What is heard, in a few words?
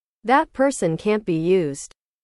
english